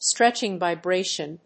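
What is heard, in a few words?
"stretching vibration", English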